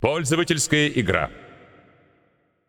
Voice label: Announcer Russian Mode Custom Game

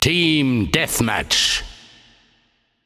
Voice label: Announcer German Mode Team Deathmatch

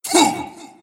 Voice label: void spirit pain

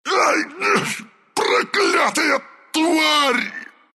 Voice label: death, ru; dota2

